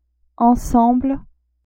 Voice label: "ensemble", French; adverbs